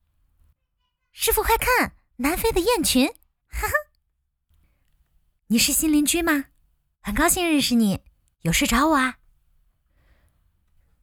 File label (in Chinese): 人物配音萝莉御姐